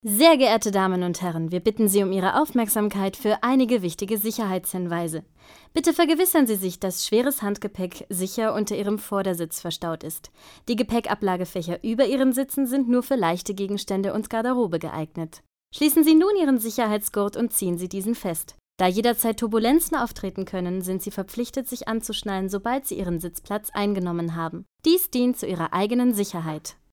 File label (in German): Audioguide Deutsch